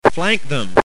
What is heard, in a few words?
flank them, Radio; svencoop; FZRadio